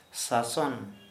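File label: Santali male grave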